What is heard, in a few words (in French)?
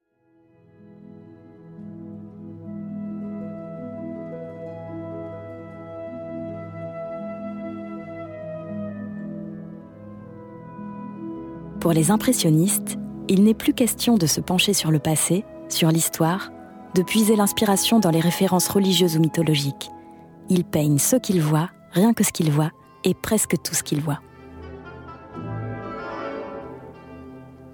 Souriante Calme; Debussy et les impressionnistes